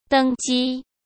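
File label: "登机", Mandarin Chinese; chinese flashcards; hsk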